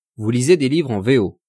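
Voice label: "lisez des livres en vo", French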